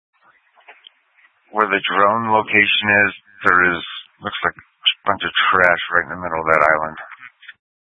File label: Incident Tac 3, scanner